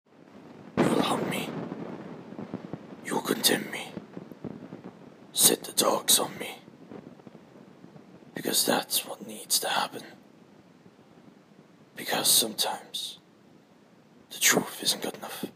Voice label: Monologue Of The Anti-hero